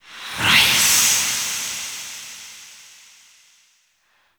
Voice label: Whisper, RIS; Kuva Lich; Requiem Mod

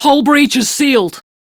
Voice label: Crew Fix Breach, Female; Dialog; en; Warframe; Railjack Crew; Steel Meridian

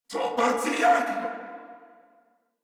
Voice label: Player Spotted Corpus Venus Male, en; Warframe; Battle Barks

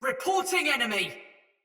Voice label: See Player Shout Soldier Mace Agent Female; Battle; Sinecure Melee

